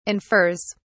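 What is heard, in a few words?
"infers", British English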